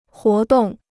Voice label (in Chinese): "活动", Chinese